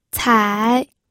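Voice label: "cai", Mandarin Chinese, third tone; pinyin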